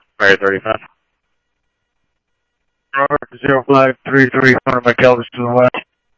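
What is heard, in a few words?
Mesa Police Department, scanner audio trunk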